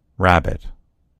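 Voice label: "rabbit", American English; gcompris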